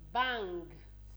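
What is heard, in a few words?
"bang", Nigerian Pidgin, female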